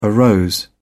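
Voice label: "arose", British English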